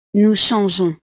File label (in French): "nous changeons", frances